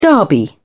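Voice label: "derby", English, British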